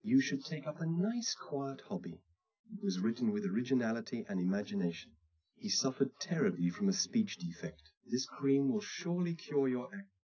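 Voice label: male speech; SiSEC10; underdetermined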